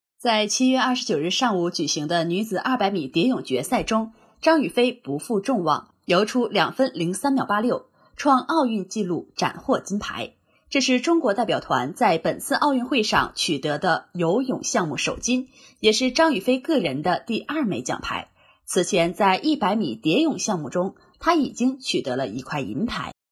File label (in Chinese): 新闻试音